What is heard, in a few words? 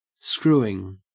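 "screwing", Australian English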